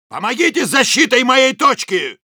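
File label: help me defend, Russian; Soldier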